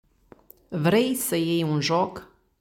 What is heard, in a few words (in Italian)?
Rumeno